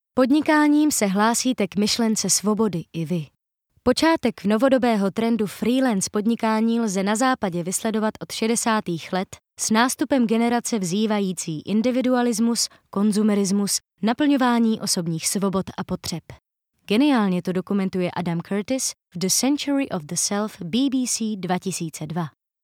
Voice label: czech audiobooks

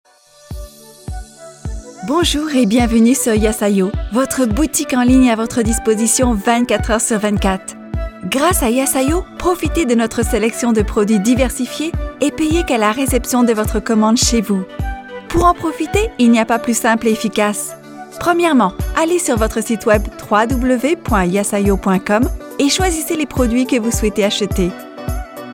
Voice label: french animation

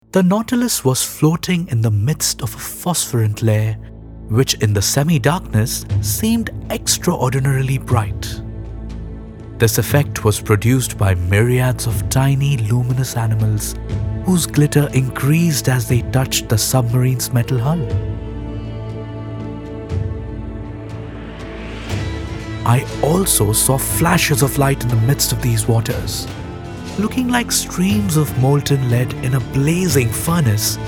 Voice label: english narration

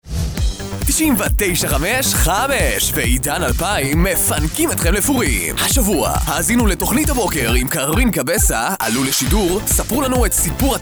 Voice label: hebrew promos

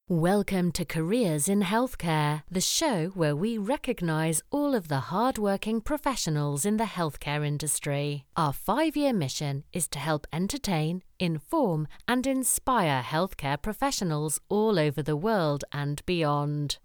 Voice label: english british podcasts

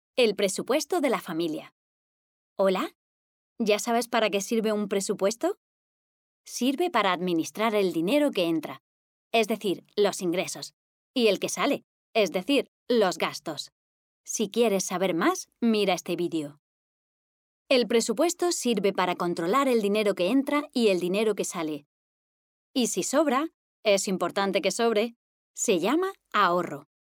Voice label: spanish e-learning; actriz de doblaje española

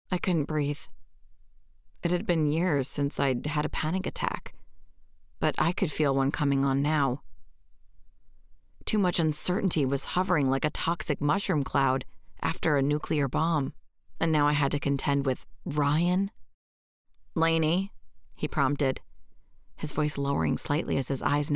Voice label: english american audiobooks

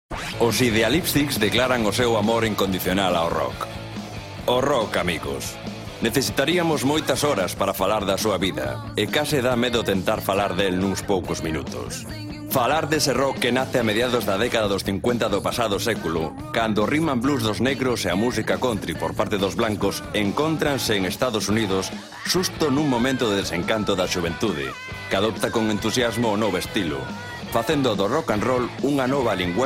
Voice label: spanish tv shows

